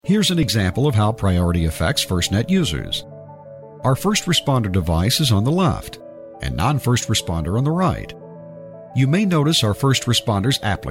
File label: Explainer E-Learning